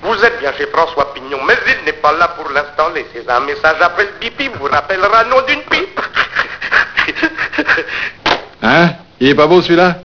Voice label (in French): repondeur